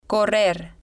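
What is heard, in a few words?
"correr", Spanish, female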